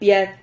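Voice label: "piad", Spanish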